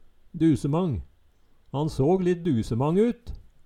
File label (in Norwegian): "dusemang", Numedalsmål